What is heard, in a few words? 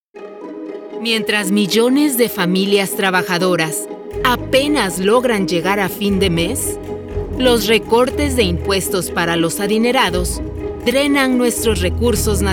pol, Political